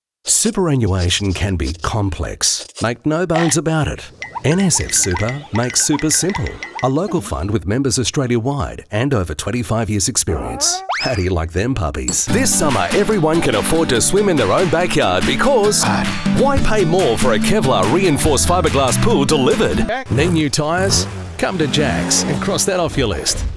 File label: Full Commercial